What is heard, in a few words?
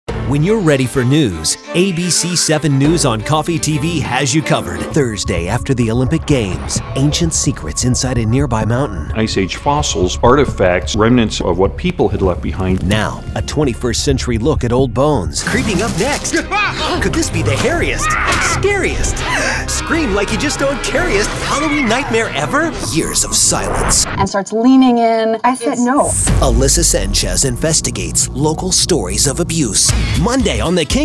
Promo Affilliate